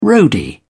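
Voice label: "roadie", British English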